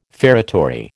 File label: "feretory", American